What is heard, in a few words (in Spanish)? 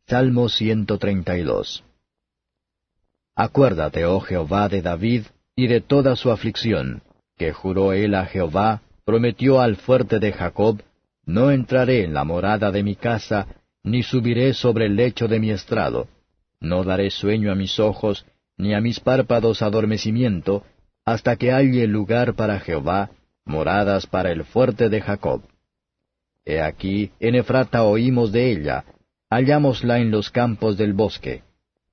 salmos spanish